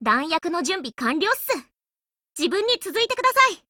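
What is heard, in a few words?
ATTACK Japanese; 少女前线:MSBS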